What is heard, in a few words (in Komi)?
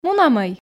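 "мунамӧй", Komi, female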